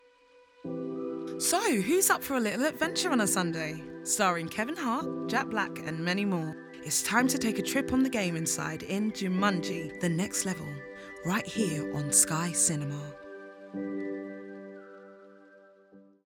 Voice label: TV Ident